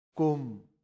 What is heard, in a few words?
"cum", latin; santillana